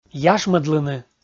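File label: "яшмӧдлыны", Komi, male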